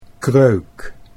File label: "Kreuk", Dutch